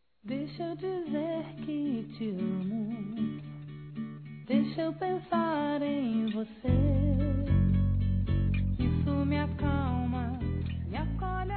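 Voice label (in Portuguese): Desculpas romantica, Telemensagens, female; Telemensagens 2017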